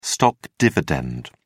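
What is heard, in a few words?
"stock dividend", English, British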